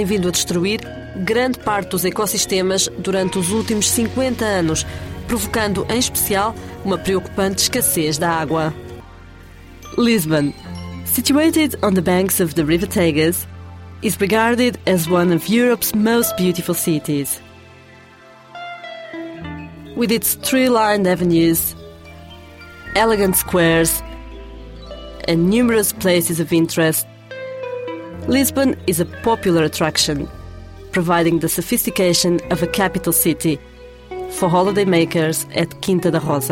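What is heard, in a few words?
Portuguese, General; GoLocalise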